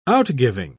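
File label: "outgiving", British English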